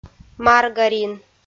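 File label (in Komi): "маргарин", Komi-Zyrian, female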